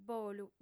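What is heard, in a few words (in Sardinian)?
"bolu", female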